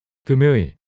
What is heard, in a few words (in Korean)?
"금요일" 단어 남자